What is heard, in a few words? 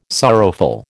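"sorrowful", American English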